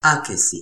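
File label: "akesi", Toki Pona; linku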